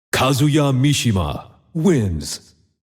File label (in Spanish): Tema de Victoria (Anunciador); Tekken; SSBU